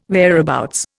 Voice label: "whereabouts", Indian